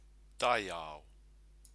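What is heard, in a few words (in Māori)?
"taiao", Māori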